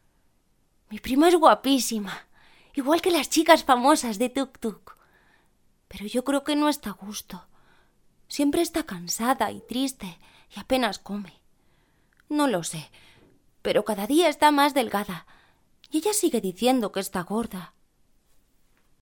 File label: locutora niña spanish child voice; voz de niño